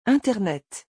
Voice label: "internet", French

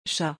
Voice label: "chat", French

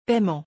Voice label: "paiement", French

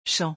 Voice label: "champ", French

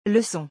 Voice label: "leçon", French

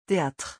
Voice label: "théâtre", French

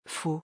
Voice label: "faux", French

